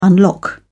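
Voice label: "unlock", English, British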